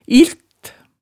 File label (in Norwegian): "ilt", hemsedalsmaalet